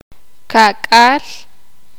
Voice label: "cuarenta", sakapulteko

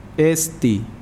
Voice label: Nawat palabras sangre; pipil

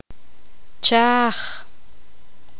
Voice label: poqomam cenizas

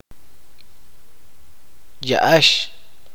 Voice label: "verde", chuj

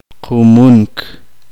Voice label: uspanteko beber

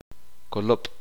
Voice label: uspanteko cuerda

